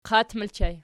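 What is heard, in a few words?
"qat" ergative plural, Archi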